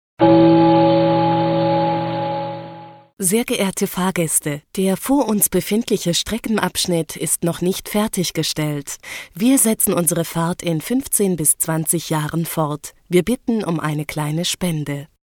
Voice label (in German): Ansage